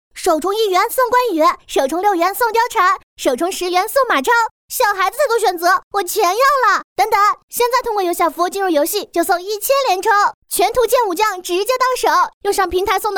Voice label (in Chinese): 萝莉御姐